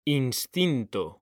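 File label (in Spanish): "instinto", Spanish, male; mekanta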